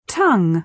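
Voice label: "tongue", British English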